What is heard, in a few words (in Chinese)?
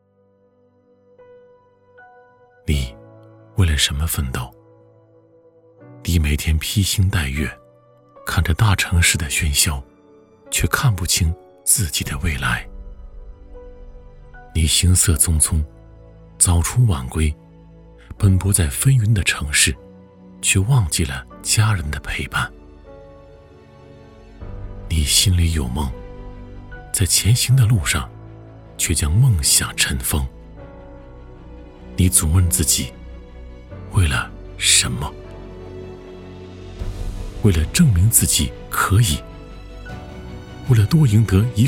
男 独白; 创造不凡